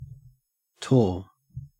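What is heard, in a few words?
"tor", Australian English; pronunciations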